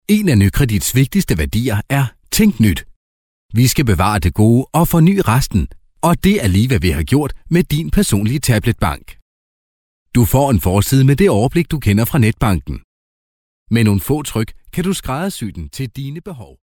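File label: Danish, male, commercial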